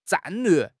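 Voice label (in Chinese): "战略", Chinese; HSK6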